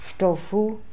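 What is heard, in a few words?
"Ftofoo", pronunciations; Fourth Way; Beelzebub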